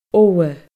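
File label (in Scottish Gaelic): "ogha", Scottish Gaelic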